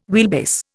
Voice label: "wheelbase", Indian